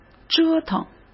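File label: "折腾", Mandarin Chinese; hsk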